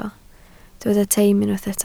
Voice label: "teim", Welsh

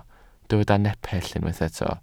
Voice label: "nepell", welsh

